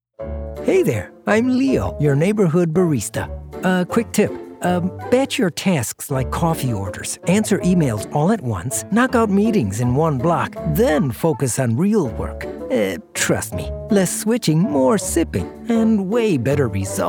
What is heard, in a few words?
explainer